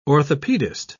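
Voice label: "orthopaedist", British English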